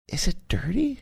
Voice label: quietly saying is it dirty